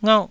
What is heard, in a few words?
"ngauh4", taiwanese syllables